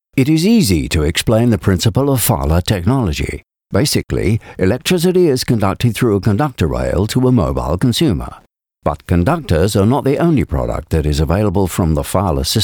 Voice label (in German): imagefilm industrie; Vahle